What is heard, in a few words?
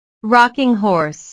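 "rocking horse", English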